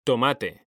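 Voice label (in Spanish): "tomate", Spanish; mekanta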